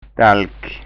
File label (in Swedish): "dalk", skanska